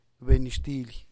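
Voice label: "bennyxtily", Teotitlán del Valle Zapotec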